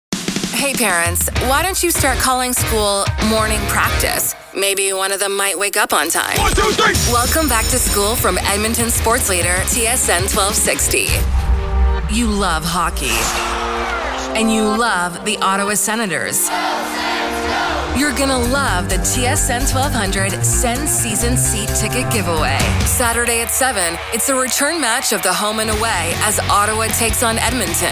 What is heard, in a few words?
english canadian